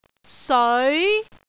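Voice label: "seoi2", Cantonese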